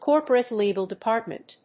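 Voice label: "corporate legal department", English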